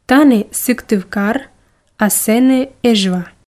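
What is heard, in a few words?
"тані сыктывкар а сэні эжва", Komi, female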